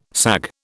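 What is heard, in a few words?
"sag", British English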